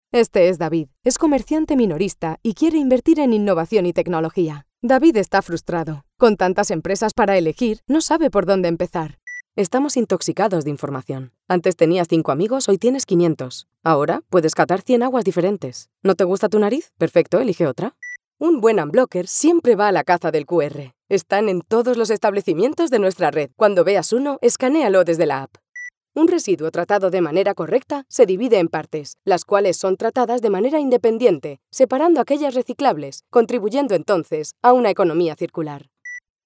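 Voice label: Spanish Female Explainer no music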